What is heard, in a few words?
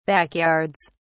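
"backyards", British English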